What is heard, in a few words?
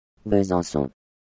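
"Besançon", French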